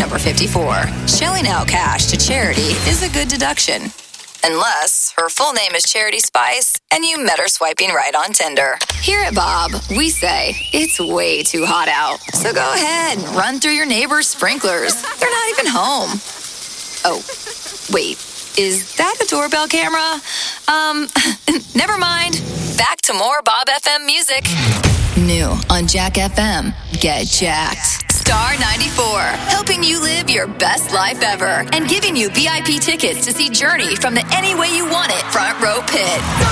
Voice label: radio women, radio imaging